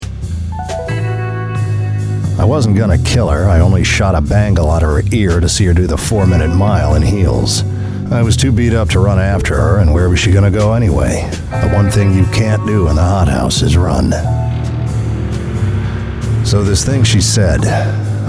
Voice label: Narration; Bruiser